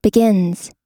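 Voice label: "begins", US English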